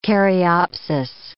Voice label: "caryopsis", English

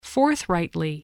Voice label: "forthrightly", English voice, spelling

